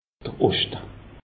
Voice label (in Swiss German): "oschte", alsace; meteo